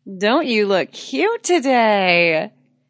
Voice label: Woman Says Don't You Look Cute Cheesy; Talking